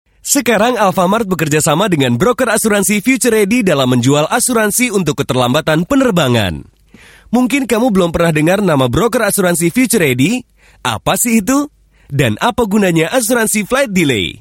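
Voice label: Bahasa Indonesia; FutureReady VO Energy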